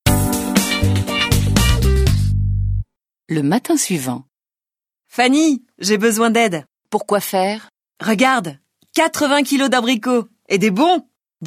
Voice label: French